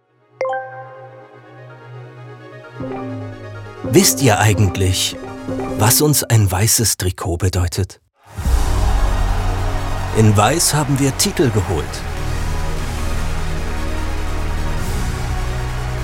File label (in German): werbespot, sprecher; trikot launch 2022; eintracht frankfurt